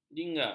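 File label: "jingga", Malay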